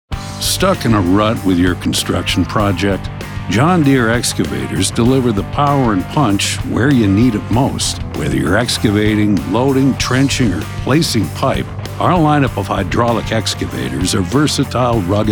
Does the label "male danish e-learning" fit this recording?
No